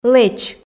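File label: "лэч" Komi, female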